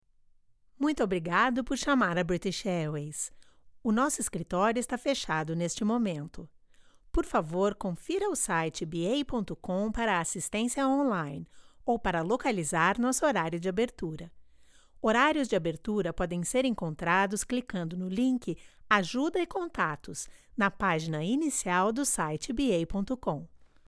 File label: IVR Brazilian Portuguese; British Airways